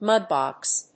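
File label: "mud-box", English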